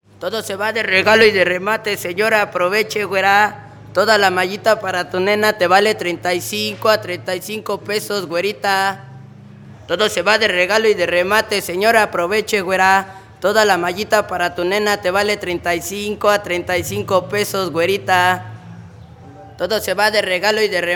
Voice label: human voice street seller mexico selling tights spanish; felix blume